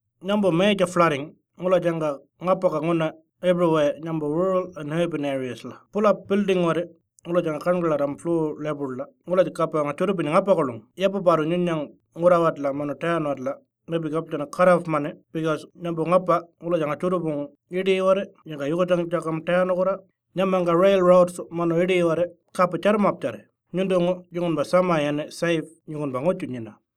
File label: Warlpiri floods message; tropical cyclone esther february 2020; NTFRES